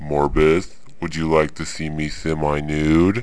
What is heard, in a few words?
deep voice